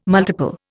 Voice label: "multiple", Indian